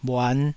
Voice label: "bhuan5", taiwanese syllables